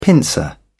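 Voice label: "pincer", British English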